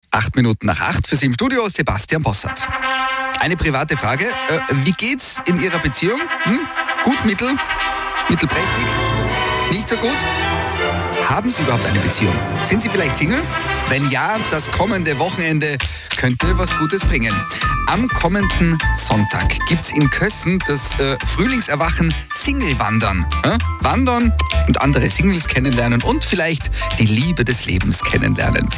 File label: radio; liferadio tirol; singlewandern